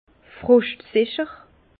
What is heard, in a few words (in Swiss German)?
"froschtsicher", Bas Rhin; vin; résistance au gel